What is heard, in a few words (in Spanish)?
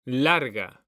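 "larga" Spanish, male; mekanta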